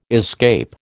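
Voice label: "escape", American English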